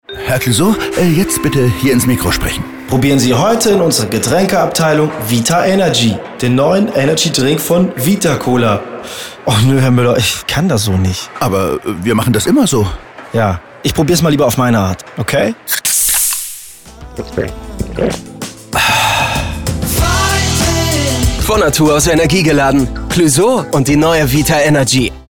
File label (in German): Durchsage; Vita Cola